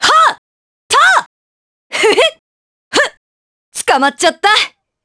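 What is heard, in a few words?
vox trs Japanese; Naila; kingsraid; jp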